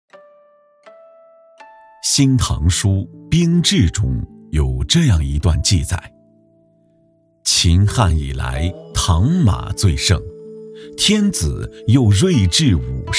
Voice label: 历史解说

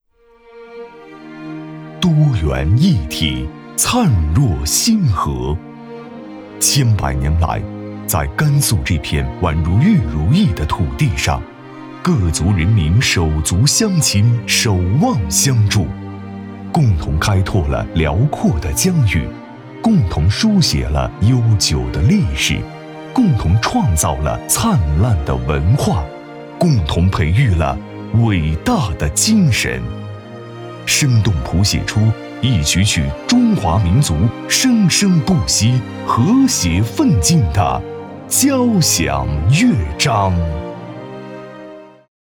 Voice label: 专题片; 甘肃民族团结工作

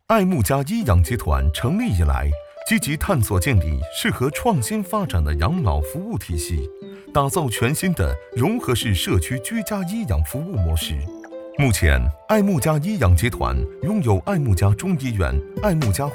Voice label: 讲述; 爱睦家

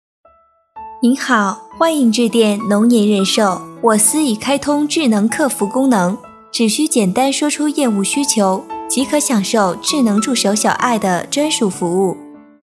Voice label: 彩铃自然

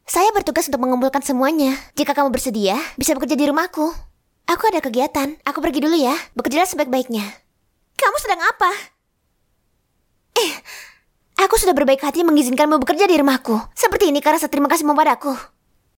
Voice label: 印尼语 女声 角色

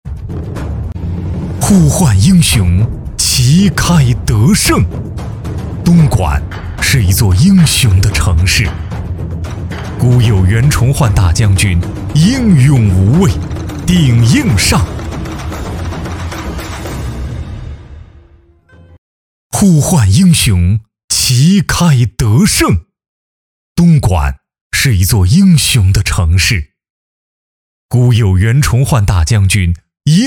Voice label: 城市专题磁性昂扬东莞宣传男

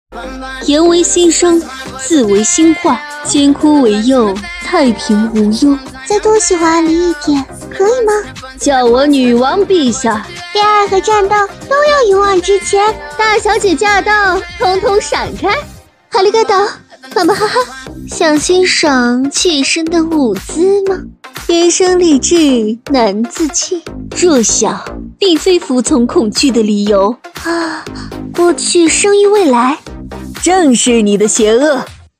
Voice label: 女声角色; 王者; 精分

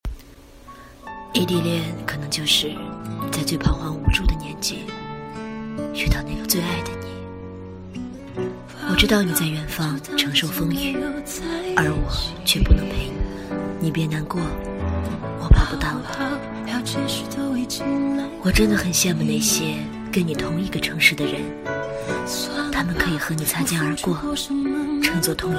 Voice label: 深沉短片配音

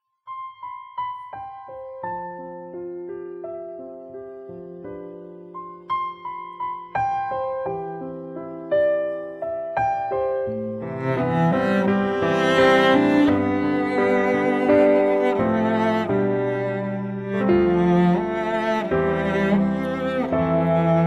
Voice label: 字幕配音

